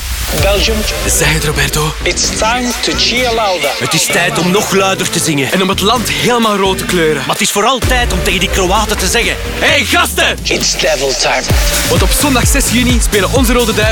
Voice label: NL; RBFA; Kroatie